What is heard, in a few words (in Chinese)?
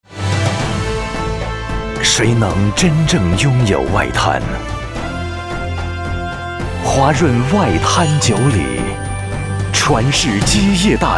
男 国语男声配音; 上海 外滩九里